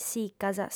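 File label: "sicas", female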